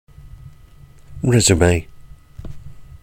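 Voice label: "resume", English pronunciation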